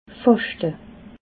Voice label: "faschte", alsace

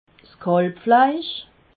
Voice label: "kalbfleisch", alsace

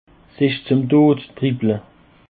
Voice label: "tod", Alsace

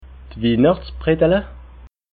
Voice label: "wihnachtsbredle", alsace

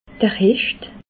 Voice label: "hecht", alsace

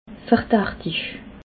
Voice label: "verdachtig", alsace

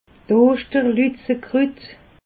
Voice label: "oschterluzejekrut", alsace

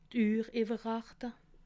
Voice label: "urhewerrachte", alsace

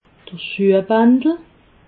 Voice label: "schuehbandel", alsace

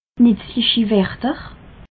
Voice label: "nitzlichi", alsace

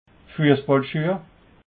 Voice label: "fussballschueh", alsace

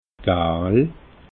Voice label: "gaal", alsace

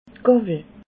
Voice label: "gawwel", alsace

